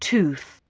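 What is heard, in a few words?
"tooth", English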